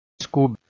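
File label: "skoub", Proto-Indo-European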